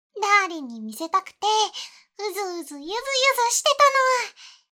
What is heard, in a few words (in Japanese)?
目覚まし アドリブ; 作中セリフから声優さんのアドリブ